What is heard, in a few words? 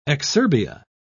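"exurbia", British English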